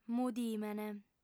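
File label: "mudimene", female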